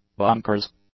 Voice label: "bonkers", English; adjectives